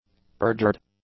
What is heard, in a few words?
"perjured", english; adjectives